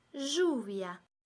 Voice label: "lluvia", Espagnol